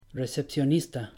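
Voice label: "recepcionista", Spanish; pronouncing c g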